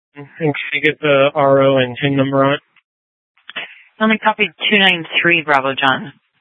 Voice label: Sheriff Shrf Lakes, scanner P25; Maricopa County (P25); Thompson Peak